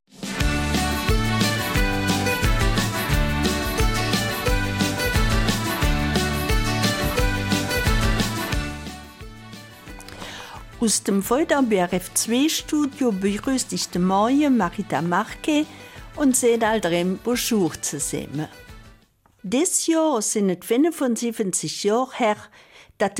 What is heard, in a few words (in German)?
Eifeler Mundart; Eine Kindheit im Krieg